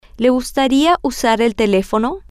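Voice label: Spanish, would he like to use the phone, female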